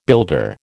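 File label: "builder", American English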